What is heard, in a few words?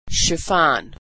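"chiffon", American English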